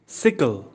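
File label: "sickle", English; simple machine new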